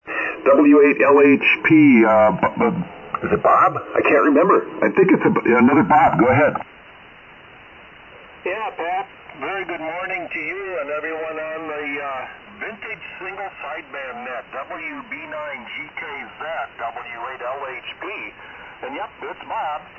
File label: Heathkit HW-12A Astatic 10-DA Mic; Buchanan, MI.